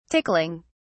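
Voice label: "tickling", British English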